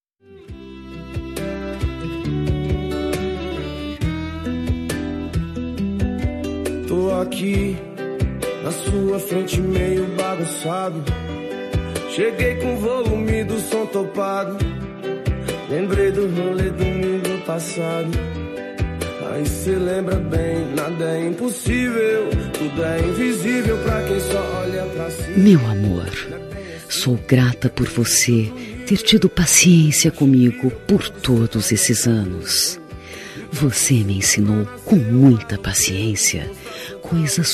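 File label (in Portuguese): agradecimento feminina romantico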